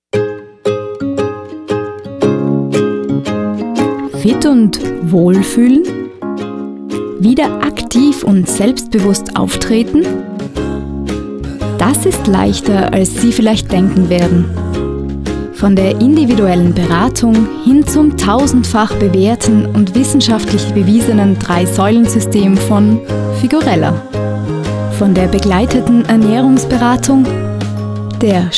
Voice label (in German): Werbung; Figurella